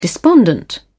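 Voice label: "despondent", British English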